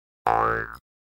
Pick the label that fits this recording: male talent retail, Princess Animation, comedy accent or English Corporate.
comedy accent